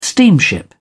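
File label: "steamship", British English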